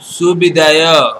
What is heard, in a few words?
goodbye, odia